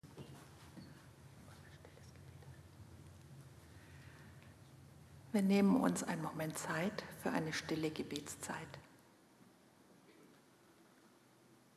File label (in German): predigten